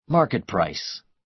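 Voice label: "market price", American English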